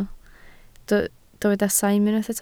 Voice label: "saim", Welsh

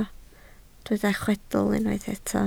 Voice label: "chwedl" Welsh

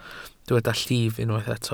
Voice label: "llif", Welsh

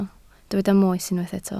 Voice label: "moes" Welsh

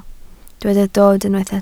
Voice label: "dod" Welsh

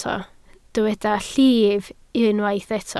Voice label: "llif", Welsh

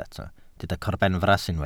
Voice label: "corbenfras" Welsh

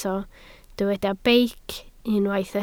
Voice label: "beic", Welsh

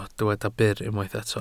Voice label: "byr", Welsh

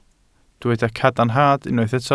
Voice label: "cadarnhad", Welsh

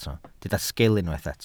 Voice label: "sgil", Welsh

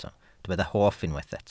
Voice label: "hoff", Welsh

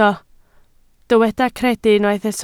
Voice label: "credu", Welsh

